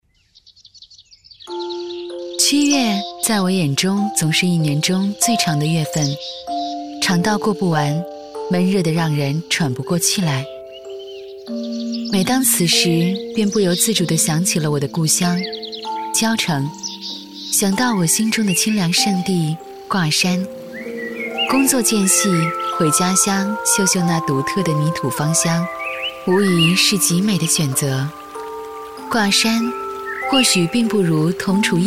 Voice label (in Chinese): 女 清新 解说; 卦山